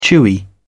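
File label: "chewy", British English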